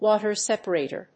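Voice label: "WATER SEPARATOR", English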